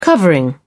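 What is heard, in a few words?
"covering", British English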